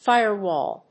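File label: "fire wall", English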